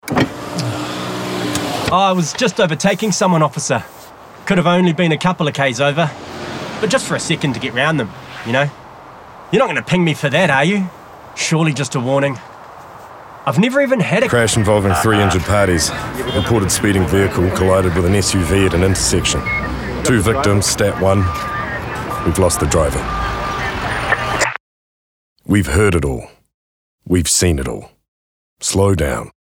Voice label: radio advert; through my eyes